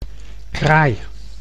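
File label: "kraai", Dutch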